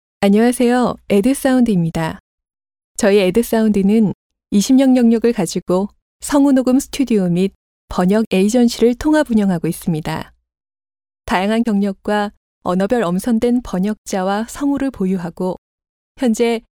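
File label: Korean, female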